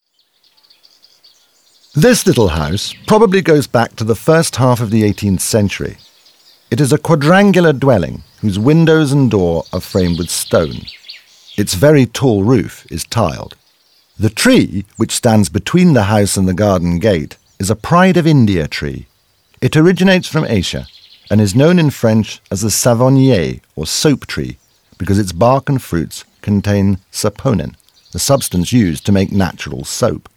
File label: audioguide en enfant; modave